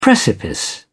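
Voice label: "precipice", British English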